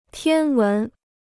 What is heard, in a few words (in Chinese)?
"天文", Chinese